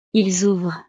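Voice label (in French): "ils ouvrent", French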